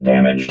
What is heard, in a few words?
"damaged", robot